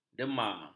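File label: "dema", Malay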